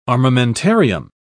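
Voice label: "armamentarium", British English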